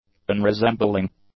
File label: "unresembling", english; adjectives